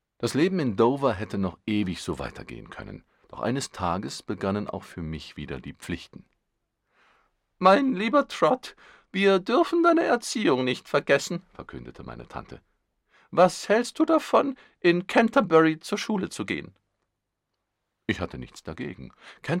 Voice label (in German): de horbuch, deutsch maenner; sprecher